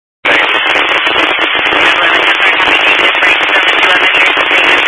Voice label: Highway Patrol, scanner; DPS

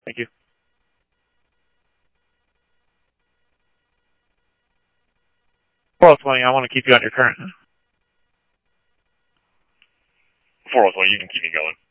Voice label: Police, scanner audio

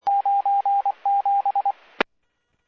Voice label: Medevac, scanner; NativeAirAmb

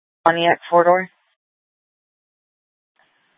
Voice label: Scottsdale PD Sth Dispatch, scanner; Regional Wireless Cooperative (RWC) - Simulcast H- Scottsdale

